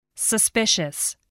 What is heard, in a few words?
"suspicious", English